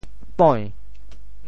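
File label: "poi~2", Teochew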